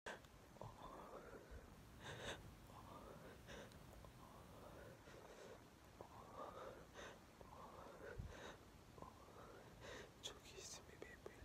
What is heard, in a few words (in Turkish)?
erkek asmr; kulaklikla dinle